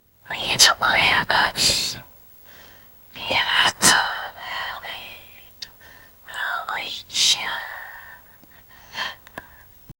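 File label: whispering gibberish woman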